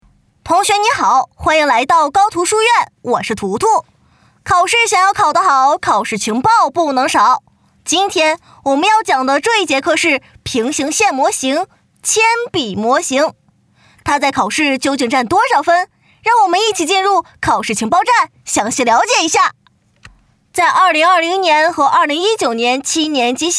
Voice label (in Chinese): 课件; 高途课堂途途